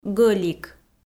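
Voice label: "гӧлик", Komi, female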